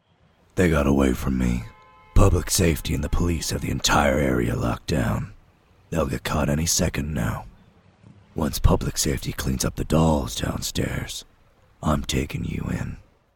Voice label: Grizzled Man

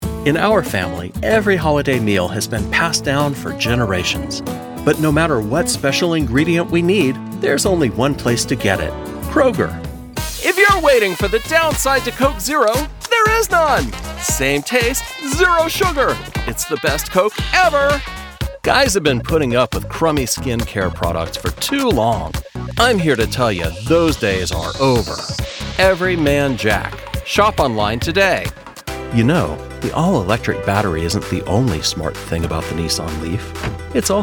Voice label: Commercials

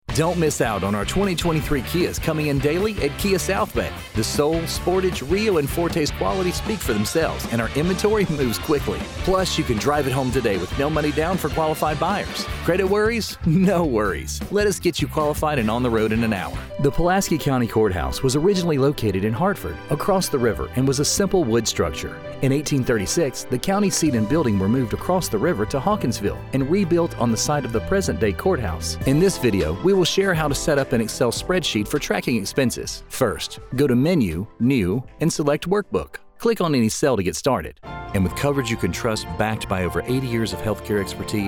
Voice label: Dynamic Voice

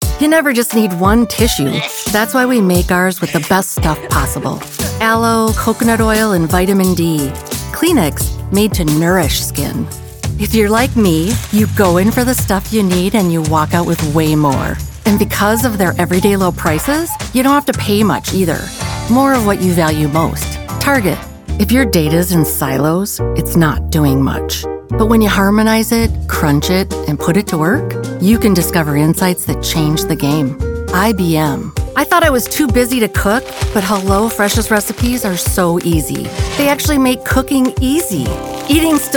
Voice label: Commercial

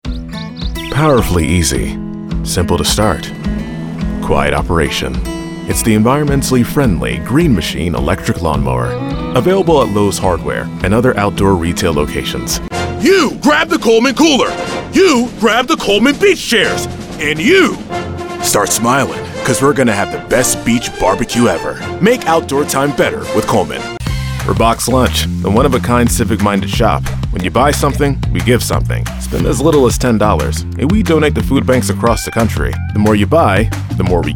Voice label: Commercial

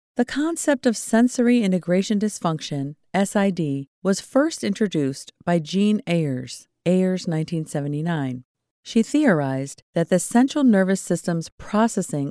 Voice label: MEDICAL EXPLAINER VIDEO; Sensory Integration Disorder

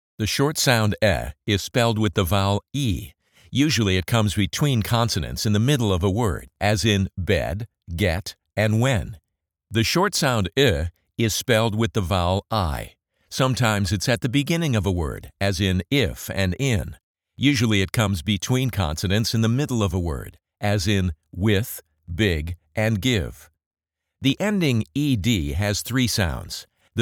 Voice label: elearning video audition

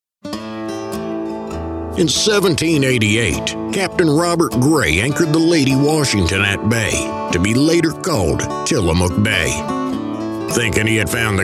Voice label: commercial

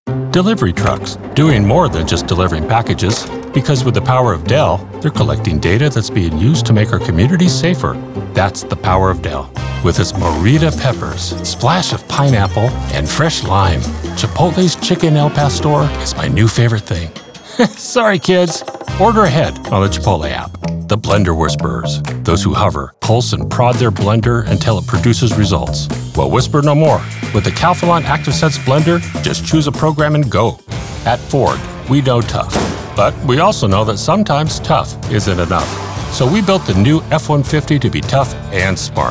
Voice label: Comercial

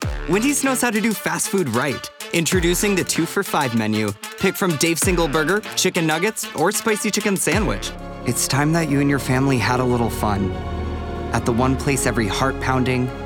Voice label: commercial

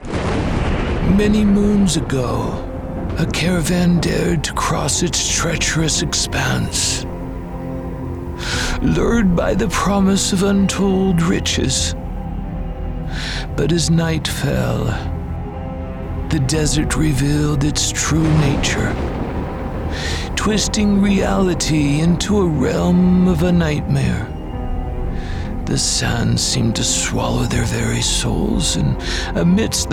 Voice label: Old Man

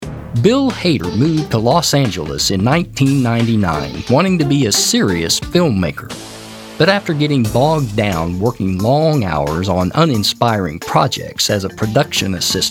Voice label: Narration

